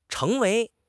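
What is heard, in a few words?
"cheng2 wei2", Mandarin Chinese; HSK4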